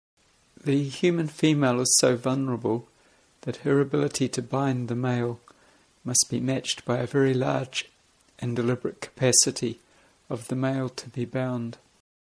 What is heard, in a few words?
female male bound